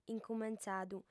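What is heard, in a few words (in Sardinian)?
"incumentzadu", female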